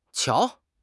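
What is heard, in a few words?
"qiao2", Chinese; HSK4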